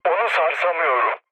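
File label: aircraft damaged, mood low, Turkish; speech; War Thunder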